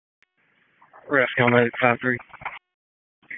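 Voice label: EMS DISP, radio; wake; p25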